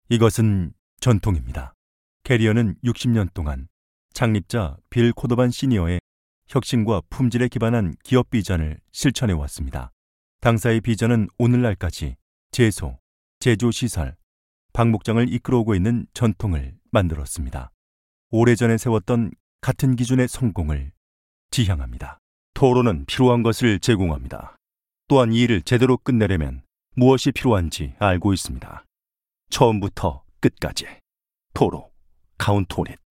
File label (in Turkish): Korece Erkek